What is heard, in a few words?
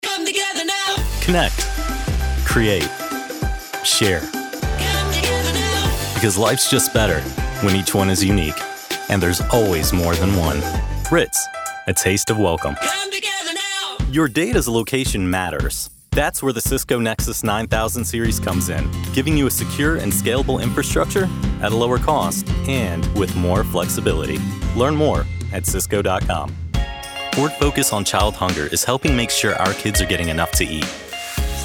Commercial